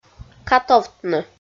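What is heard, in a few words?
"катовтны", Komi, female; kpv audio dict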